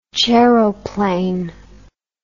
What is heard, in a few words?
"chairoplane", English